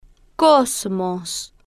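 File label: "cosmos", Spanish, female, slow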